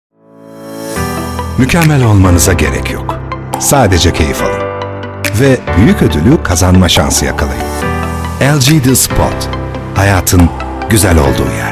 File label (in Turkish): reklam; lg